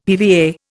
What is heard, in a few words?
"PVA", Indian; Dictionary